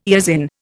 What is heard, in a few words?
"eosin", Indian